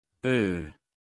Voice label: Phoneme Male; Commonscript; Lynguistykon